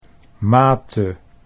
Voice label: "mate", Dutch

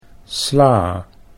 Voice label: "sla", Dutch

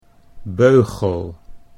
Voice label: "beugel", Dutch

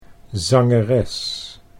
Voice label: "zangeres", Dutch

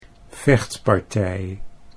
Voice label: "vechtpartij", Dutch

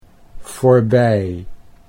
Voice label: "voorbij", Dutch

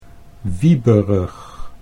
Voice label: "wieberig", Dutch

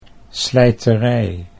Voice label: "slijterij", Dutch

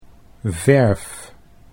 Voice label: "verf", Dutch

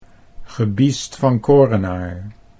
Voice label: "gebiesd van korenaar", Dutch

